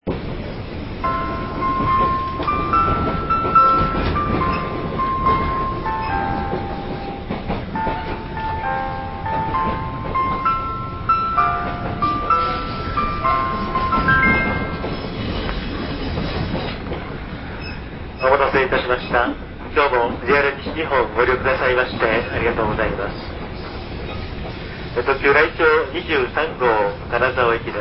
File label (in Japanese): raityo osaka, syanaihousou